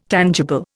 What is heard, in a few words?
"tangible", Indian